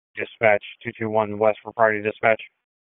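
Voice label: Police District Dispatch; wake p25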